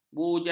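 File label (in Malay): "bujam", Malay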